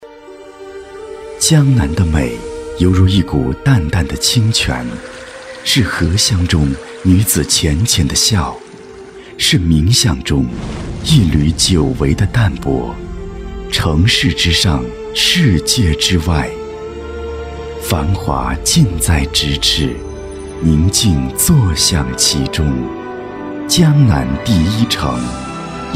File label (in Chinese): 宣传片 地产 亲切, 男国; 江南